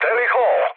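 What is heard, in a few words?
Serbian enemy detected mood high; War Thunder; speech srb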